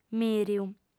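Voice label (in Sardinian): "mìriu", female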